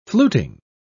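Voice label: "fluting", British English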